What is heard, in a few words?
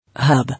"Hub", English